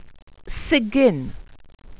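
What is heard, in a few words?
"sugyn", welsh pronunciations; Wales/welsh names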